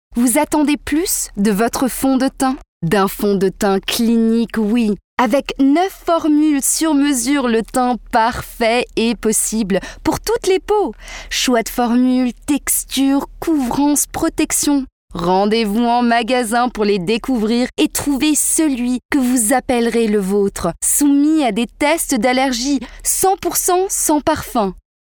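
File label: French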